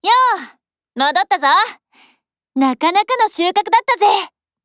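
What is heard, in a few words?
OPERATION OVER Japanese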